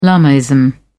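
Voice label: "lamaism", British English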